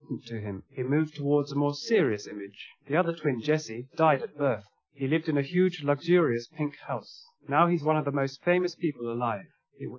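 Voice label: male speech; SiSEC10; underdetermined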